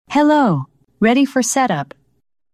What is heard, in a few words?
AMAZON ALEXA HELLO READY FOR SET UP